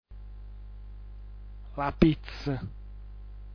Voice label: "lapizz", dialetto giulianova

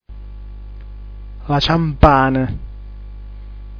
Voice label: "laciampana", dialetto giulianova

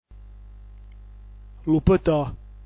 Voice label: "lupto", dialetto giulianova

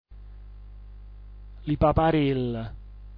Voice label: "lipaparill", dialetto giulianova

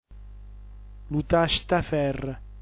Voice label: "lutatsaferr", dialetto giulianova

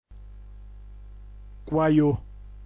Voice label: "quaju", dialetto giulianova

